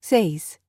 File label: Spanish Number